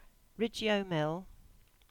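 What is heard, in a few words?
"Ridgeo Mill", English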